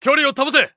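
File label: Japanese Neutral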